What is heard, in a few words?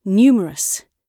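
"numerous", British English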